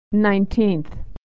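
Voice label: "nineteenth", American English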